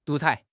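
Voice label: "独裁", Mandarin Chinese; HSK; HSK6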